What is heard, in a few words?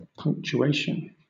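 "punctuation", British English; pronunciations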